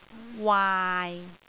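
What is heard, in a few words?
"waai6", Cantonese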